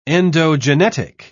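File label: "endogenetic", British English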